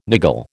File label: "niggle", American